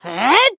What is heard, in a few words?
attack, jp; esta